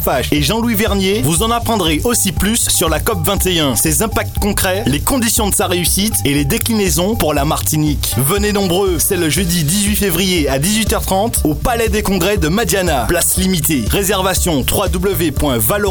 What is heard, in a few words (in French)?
SPOT Conférence